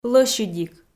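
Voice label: "лӧсьыдик", Komi, female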